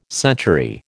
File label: "century", American